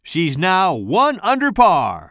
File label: commentator